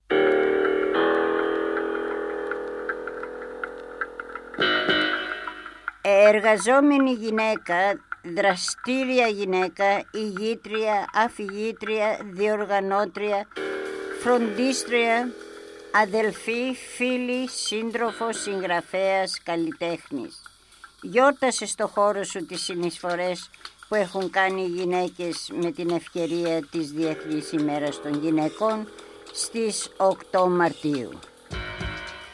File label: Greek